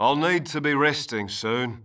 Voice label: Male; baldurs1